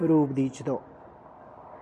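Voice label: Tlacochahuaya Zapotec; straw-basket-for-an-offering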